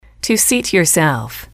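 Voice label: "to seat yourself", English, female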